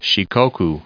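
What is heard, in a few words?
"Shikoku", US English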